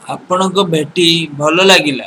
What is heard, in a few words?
meet, odia